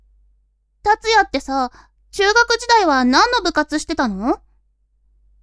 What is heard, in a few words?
woman